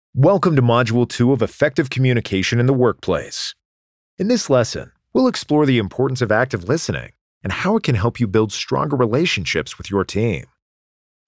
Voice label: elearning